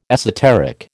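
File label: "esoteric", American English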